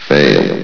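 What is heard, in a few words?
"failed", announcer voice; Source Engine; bshift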